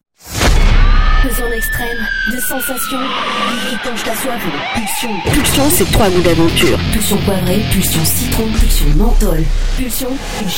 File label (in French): Voix Pour Publicité